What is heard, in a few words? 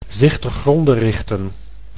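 "zich te gronde richten", Dutch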